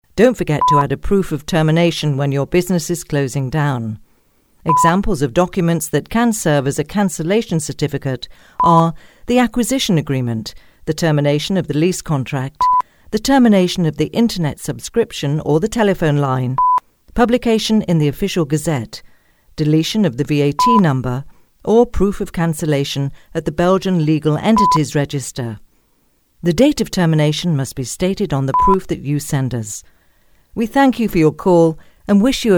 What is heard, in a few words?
UK, Messaging